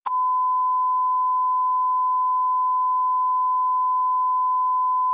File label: fire dispatch